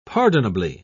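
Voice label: "pardonably", British English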